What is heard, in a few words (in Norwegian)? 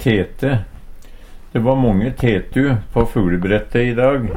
tete, Numedalsmål; De va månge tetu på fuglebrette i dag.; Substantiv hokjønn; Dyreriket